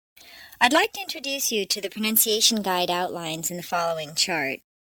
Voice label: Cute girl, Talk